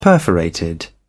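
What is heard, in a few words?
"perforated", British English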